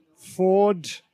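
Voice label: "ford" British English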